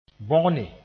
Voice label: "bõgne", moore

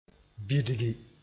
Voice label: "bidgi", moore

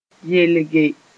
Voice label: "yelge", Mooré; wear (clothes)

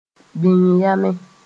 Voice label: "bĩng yamẽ", Mooré; hold

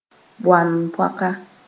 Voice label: "bõan-poaka", Mooré

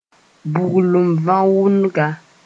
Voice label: "buglunvãwʋnga", moore

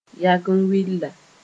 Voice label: "yagen-willa", Moore

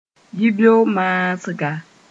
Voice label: "yibeo-maasga", mos-en; early in the morning

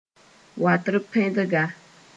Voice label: "watrpɛndga", Mooré; cattle egret

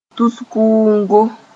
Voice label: "tus-kʋʋngo", moore

